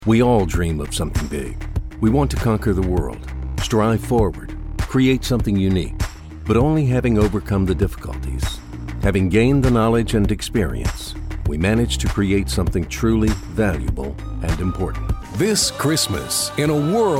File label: US male; lektoring